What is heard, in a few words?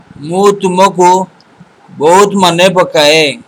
i miss you, odia